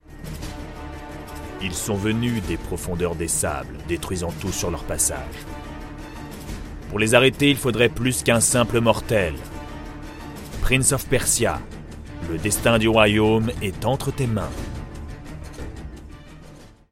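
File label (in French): tres grave autorite, voix off masculine; Je peux adopter tous les styles avec ma voix off masculine naturelle, posée, souriante ou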